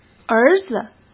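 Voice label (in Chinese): "儿子", Mandarin Chinese; hsk; chinese-flashcards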